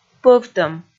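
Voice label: "пӧвтӧм", Komi, female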